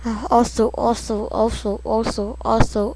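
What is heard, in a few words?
"also", spoken word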